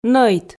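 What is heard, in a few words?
"нӧйт", Komi, female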